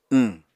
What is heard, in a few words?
"wñ", Apatani